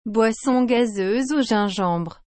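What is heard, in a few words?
"boisson gazeuse au gingembre", French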